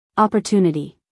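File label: "opportunity", American English female; everyone-can-use-english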